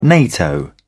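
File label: "nato", British English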